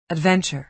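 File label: "adventure", American English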